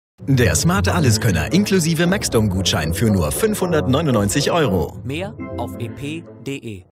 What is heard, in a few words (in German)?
unser service macht den unterschied video